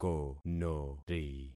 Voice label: "konori", concat syllables; Affixing experiment

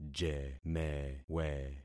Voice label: "jemewe", concat syllables; Affixing experiment

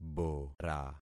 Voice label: "bora", concat syllables; Affixing experiment

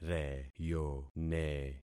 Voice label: "reyone", concat syllables; Affixing experiment

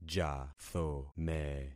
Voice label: "jathome", concat syllables; Affixing experiment

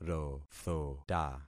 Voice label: "rothota", concat syllables; Affixing experiment

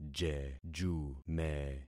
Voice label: "jejume", concat syllables; Affixing experiment

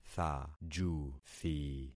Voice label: "thajuthi", concat syllables; Affixing experiment

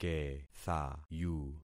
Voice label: "kethayu", concat syllables; Affixing experiment

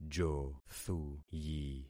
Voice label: "jothuyi", concat syllables; Affixing experiment

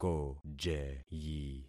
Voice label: "kojeyi", concat syllables; Affixing experiment

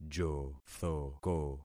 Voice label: "jothoko", concat syllables; Affixing experiment

